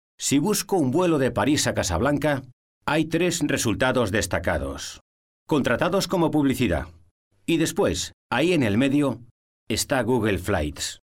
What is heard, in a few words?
spanish m narration